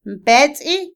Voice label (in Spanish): Otomí, mujer; lamentar; Emociones; INALI